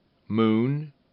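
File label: "moon", English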